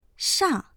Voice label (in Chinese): "shang4", voices